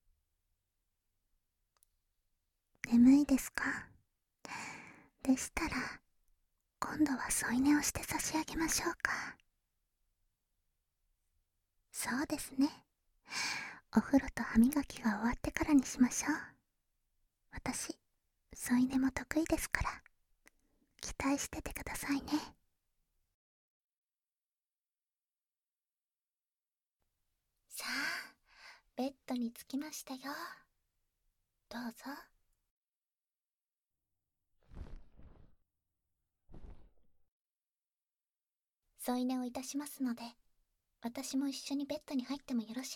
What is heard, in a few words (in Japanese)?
添い寝トラック; 耳かきと添い寝; 聖なる夜の機械仕掛けな贈り物; 人肌恋しい夜に耳かきと添い寝は如何ですか?